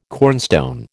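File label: "cornstone", American English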